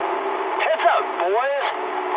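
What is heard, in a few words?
English near waypoint mood high; War Thunder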